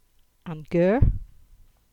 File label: "Gear" Cornish